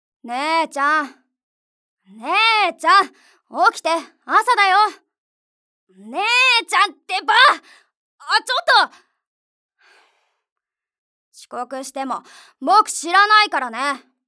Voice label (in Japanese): 弟